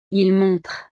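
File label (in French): "ils montrent", French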